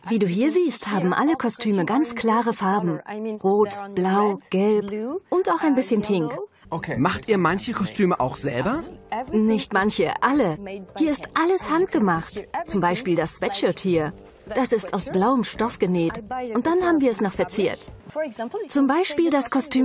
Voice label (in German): frau sehr kurzer zusammenschnitt; woozle goozle